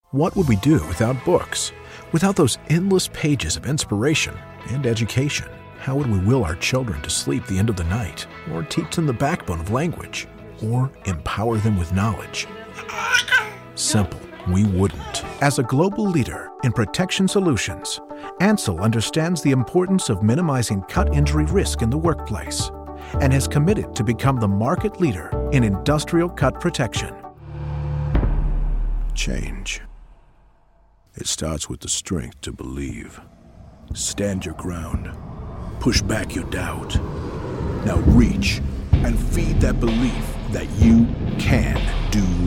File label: Voice Talent Narration